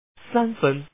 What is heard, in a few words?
Man, lord